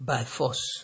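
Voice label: "by force", Nigerian Pidgin, male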